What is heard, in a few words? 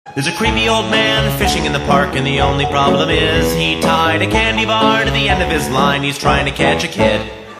Creepy Old Man